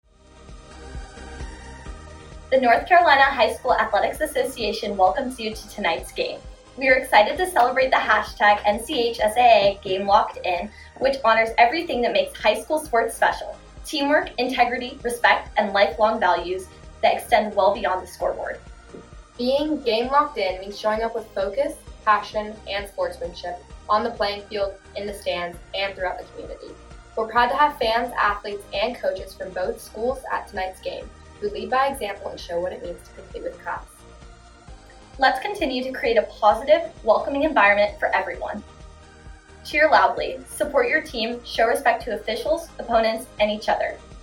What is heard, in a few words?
PSA Female Audio; Audio File of Game Public Announcement – Female Voice